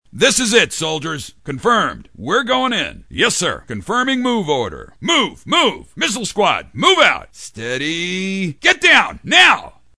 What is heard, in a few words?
Military, Generic Leader; animation